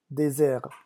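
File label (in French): "desert", French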